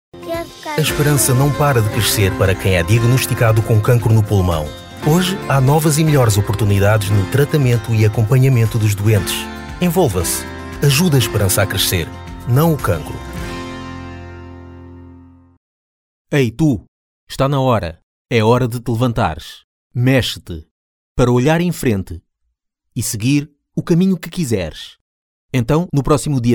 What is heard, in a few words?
Portuguese